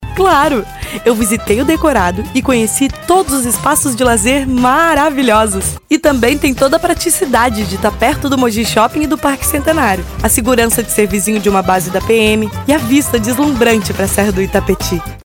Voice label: Locução Natural

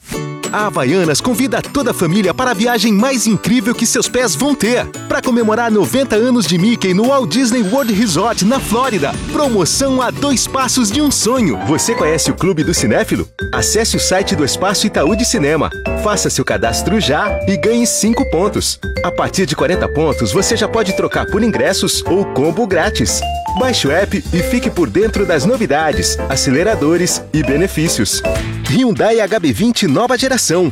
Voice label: Animado

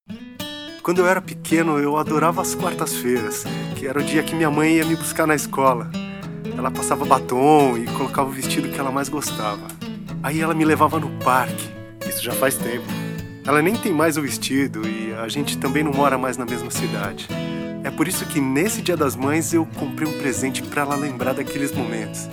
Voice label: Coloquial, Mercado Livre

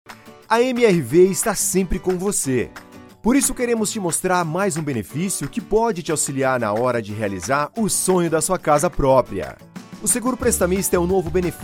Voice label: Locucao Varejo; MRV

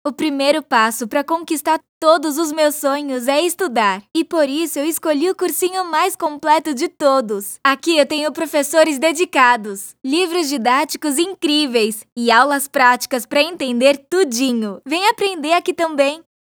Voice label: Spot Jovem

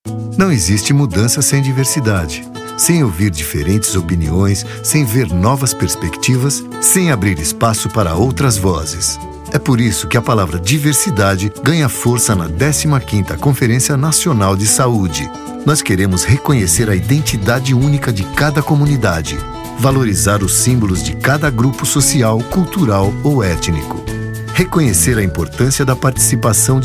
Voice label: Vinheta; Ministerio da Saude

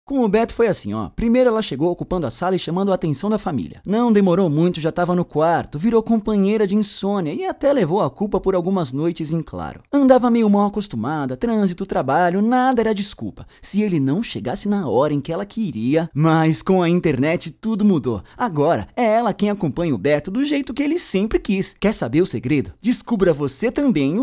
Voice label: Locução; Fox Mais

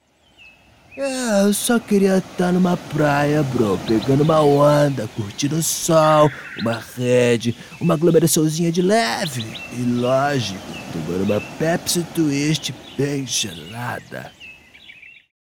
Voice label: Voz Caricata

